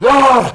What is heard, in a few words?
infantry pain; lmctf